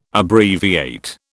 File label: "Abbreviate", British